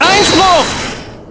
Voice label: Objection, German; Apollo